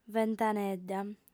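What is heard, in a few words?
"ventanedda", Sardinian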